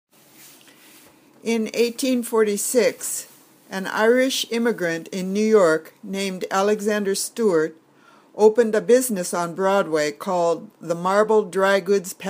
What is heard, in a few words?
Reading Drill